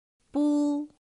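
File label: "bū", chino mandarin; pinyin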